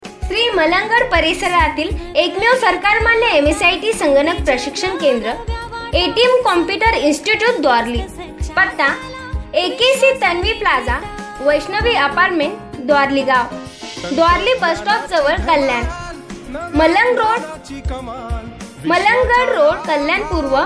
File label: atm voice